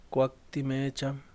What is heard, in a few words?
"tiempo", Yaqui; Vocabulary